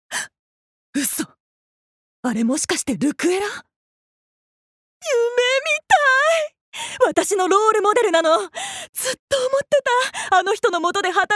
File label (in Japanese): 外画